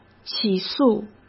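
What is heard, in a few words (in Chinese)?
"起诉", Mandarin Chinese; hsk; chinese-flashcards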